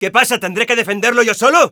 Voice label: help me defend, es